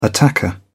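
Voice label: "attacker", English, British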